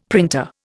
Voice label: "printer", Indian; Dictionary